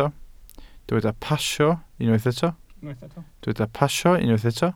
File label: "pasio", Welsh